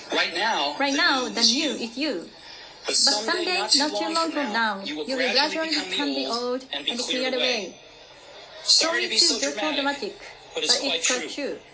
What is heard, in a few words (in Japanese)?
オーバーラッピング